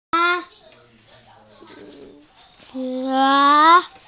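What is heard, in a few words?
"girl", Mandarin, hearing-impaired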